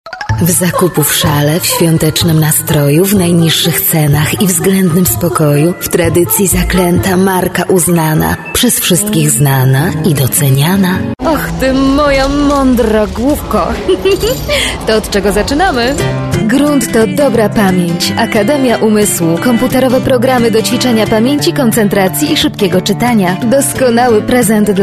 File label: COMMERCIALS